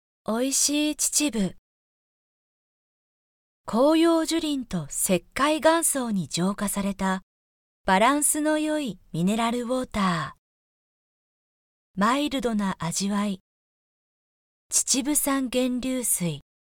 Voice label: female